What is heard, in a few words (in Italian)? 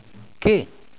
"Che", Italian